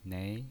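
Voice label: "nei", pinyin voice, Mandarin Chinese, second tone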